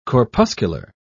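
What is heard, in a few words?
"corpuscular", British English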